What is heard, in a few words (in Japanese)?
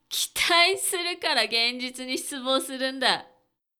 dansei kitaisurukaragenzitunishitubousurunda